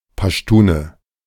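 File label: German "Paschtune"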